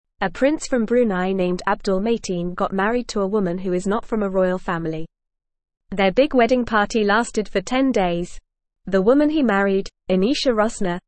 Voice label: Lower Intermediate FAST Reading, English; English Newsroom

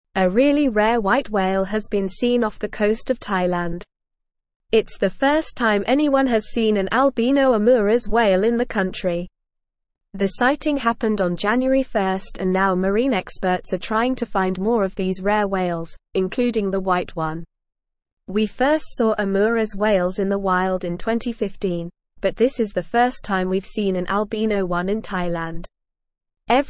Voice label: English Upper Intermediate NORMAL Reading; English Newsroom; Rare Albino Omuras Whale Sighted off Thailand Coa

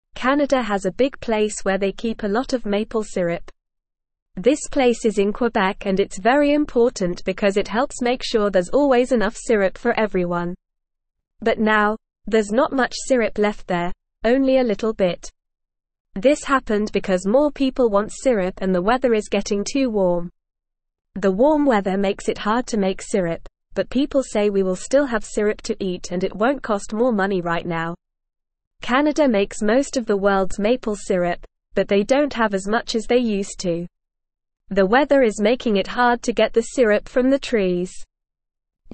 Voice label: English Beginner NORMAL Reading; English Newsroom; Canadas Maple Syrup is Running Low

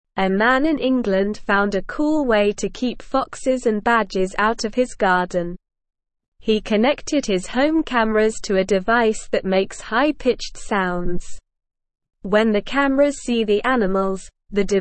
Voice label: English Upper Intermediate SLOW Reading; English Newsroom; Home Camera System Uses High Frequency Sounds to Re

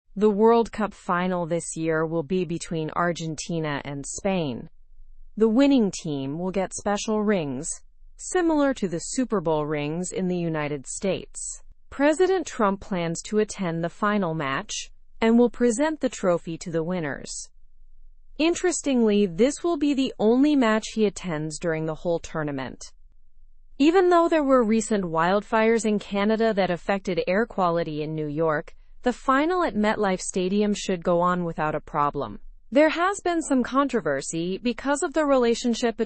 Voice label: english through news lower intermediate; world cup rings and trump s attendance

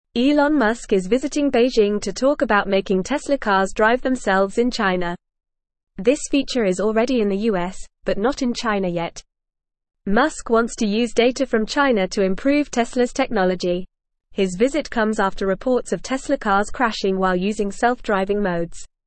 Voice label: English Lower Intermediate FAST Reading; English Newsroom Lower Intermediate; Elon Musk in China to Make Tesla Cars Drive

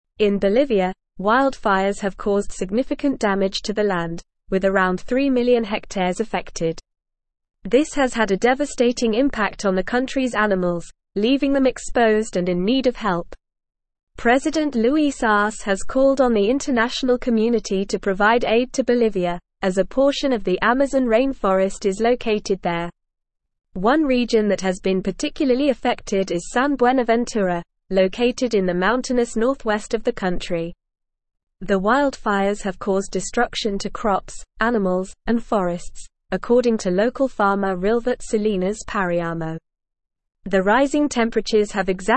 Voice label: Advanced NORMAL Reading, English; English Newsroom; Bolivias Wildfires Locals Care for Animals Seek

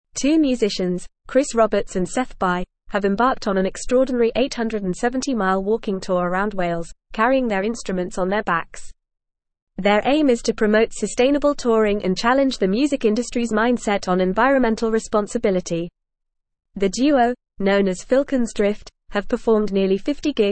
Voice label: English Newsroom Advanced FAST Reading; Musicians Promote Sustainable Touring Through 870 Mile Walk

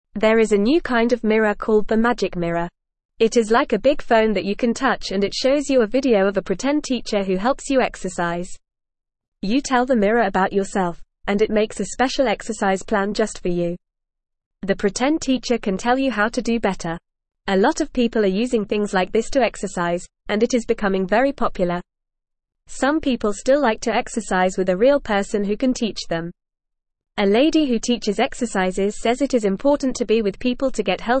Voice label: Beginner FAST Reading, English; English Newsroom; Magic Mirror A Helpful Exercise Buddy for Everyone

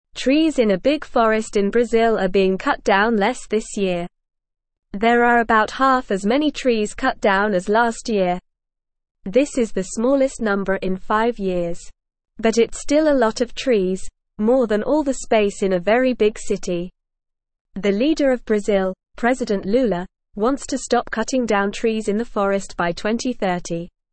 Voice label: English Newsroom Beginner NORMAL Reading

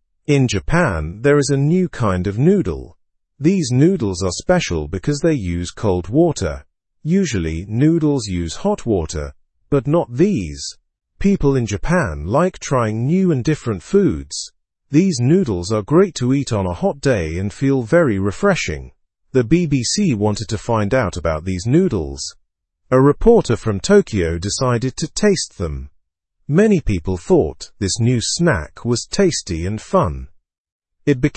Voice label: learn english through news beginner normal; cold water cup noodles in japan

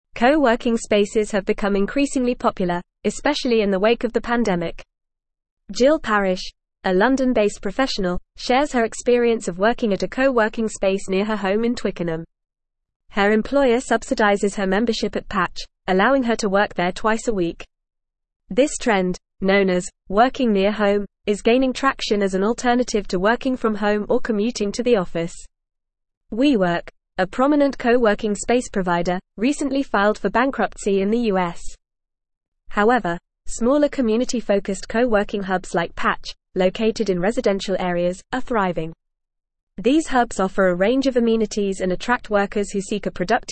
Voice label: English Newsroom Advanced FAST Reading; The Rise of Local Co Working Hubs A Solution

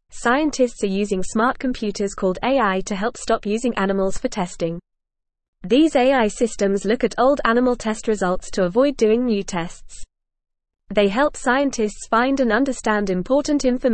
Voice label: English FAST Reading; Smart Computers Help Scientists Stop Animal Testing

